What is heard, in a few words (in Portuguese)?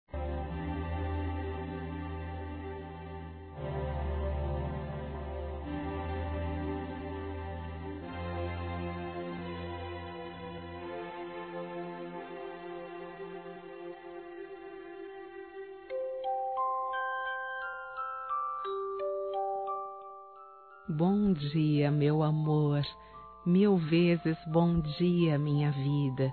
BOM DIA ROMANTICO-F